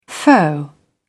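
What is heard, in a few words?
"foe", British English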